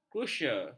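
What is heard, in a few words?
"krusye", Malay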